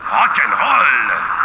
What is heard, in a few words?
"rock n roll", marines; terran